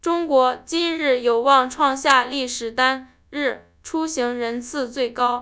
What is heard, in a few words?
synthesized; fast speech2; AISHELL3